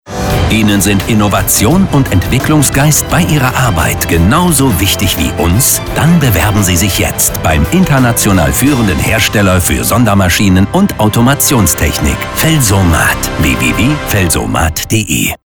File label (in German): Reminder Musik; Felsomat